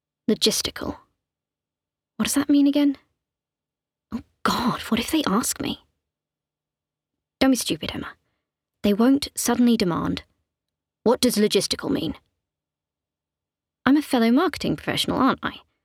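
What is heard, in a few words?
Female Narrative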